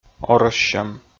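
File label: "орӧссьӧм", Komi, male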